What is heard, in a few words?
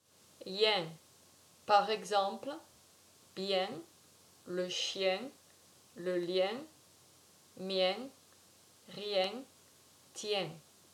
"ien", french